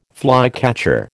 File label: "flycatcher", American